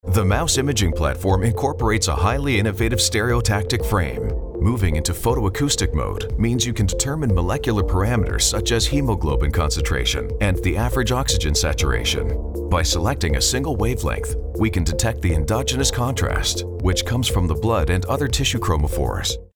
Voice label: male neutral north american accent medical narration; english canadian